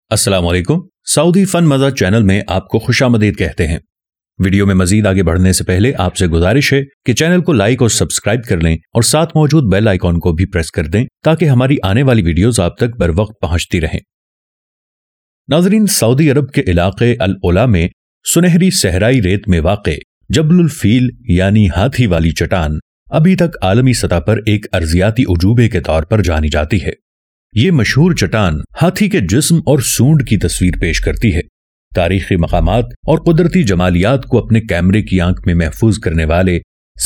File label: Saudi Fun VO; Voiceover; Saudi FunMaza